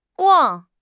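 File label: "guang4", Mandarin Chinese; HSK; HSK4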